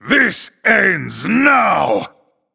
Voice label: Male NightElf Start

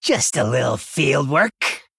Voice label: Male Gnome Reconnaissance

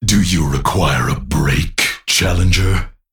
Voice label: Male Crystal Golem

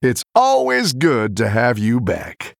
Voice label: Male Human General

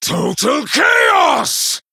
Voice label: Male Night Elf

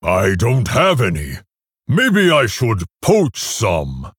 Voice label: Male Orc GREETINGS

